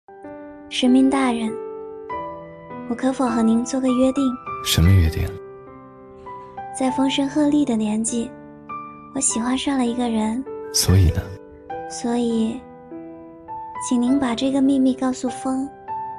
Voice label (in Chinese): 女角色 少女 甜美 奶萌; 神明大人